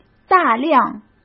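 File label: "大量", Mandarin Chinese; chinese-flashcards; hsk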